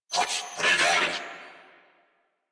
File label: Player Spotted Grineer Male; New War; Narmer